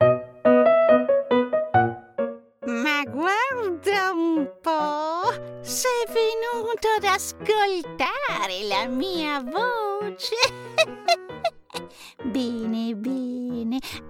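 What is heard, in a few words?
Italian, Characters; GoLocalise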